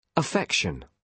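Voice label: "affection", British English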